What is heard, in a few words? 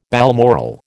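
"balmoral", American English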